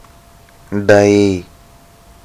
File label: dai, Malayalam